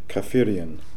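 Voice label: "Kafirian", pronunciations; Fourth Way; Beelzebub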